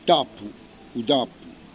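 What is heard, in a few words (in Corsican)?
"tappu", Corsican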